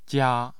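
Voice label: "jia", Mandarin Chinese, tone 1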